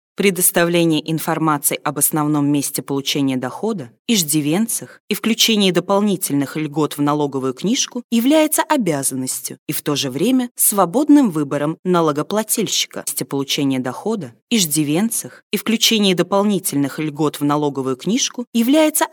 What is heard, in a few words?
RUS